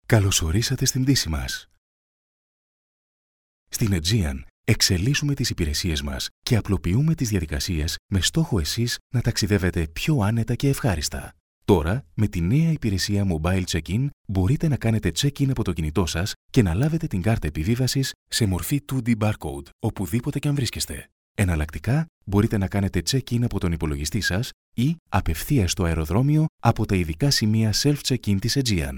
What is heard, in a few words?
IN FLIGHT GREEK VOICE OVER; AEGEAN AIRLINES